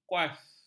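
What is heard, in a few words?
"kuas", Malay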